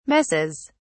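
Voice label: "messes", British English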